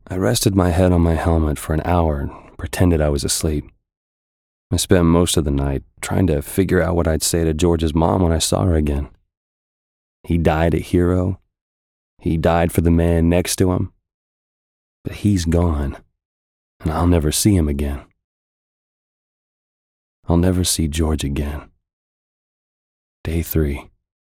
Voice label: VOICEOVER; GAMES; FPS; BROTHERS IN ARMS ROAD TO 30